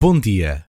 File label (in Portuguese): "Bom dia", Portuguese